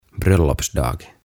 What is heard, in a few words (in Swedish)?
"Bröllopsdag" "Hääpäivä"